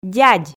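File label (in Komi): "дядь", Komi, female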